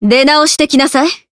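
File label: win, Japanese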